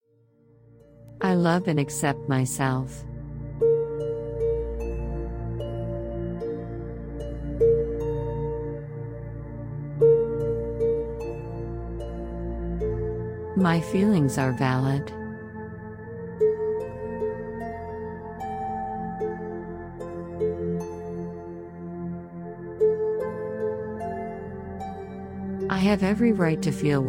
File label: My Feelings are Valid Audio Meditation